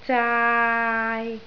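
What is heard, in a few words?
"zaai3" Cantonese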